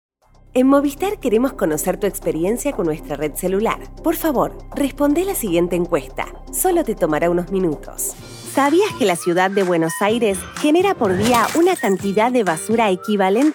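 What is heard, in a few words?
corporativo, Argentine Spanish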